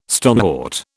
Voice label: "stonewort", British